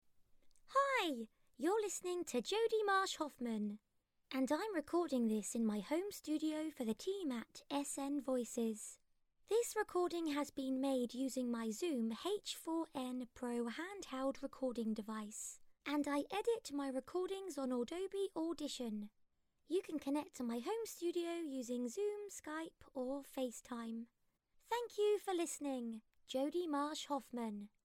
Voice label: Remote Recording

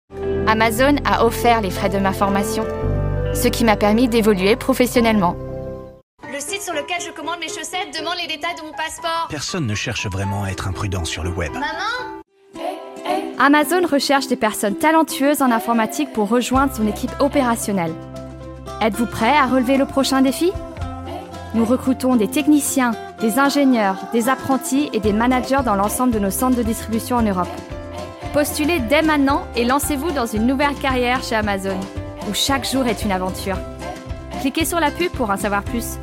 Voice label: French voice reel

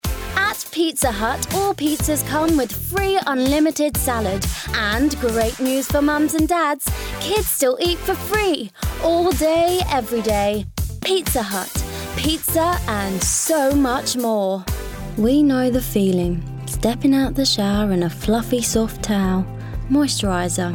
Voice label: Commercial

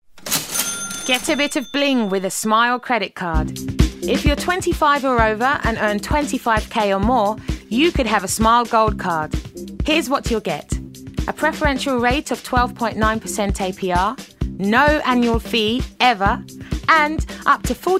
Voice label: commercial

